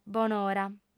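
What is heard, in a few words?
"bonora", lingua sarda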